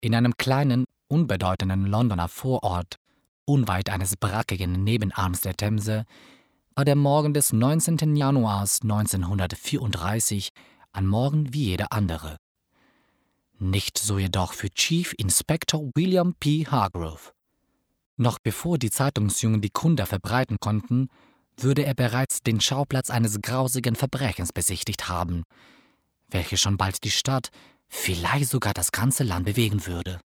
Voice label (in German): Erzaehler